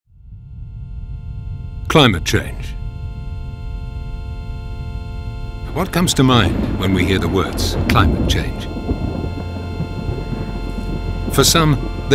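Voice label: Male 40 50 years Medium Low, English UK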